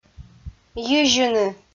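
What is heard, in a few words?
"йизьыны" Komi, female; audio dict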